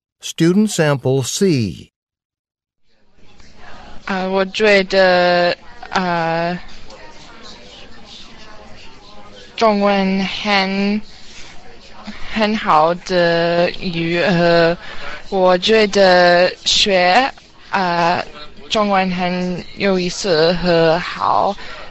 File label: chinese conversation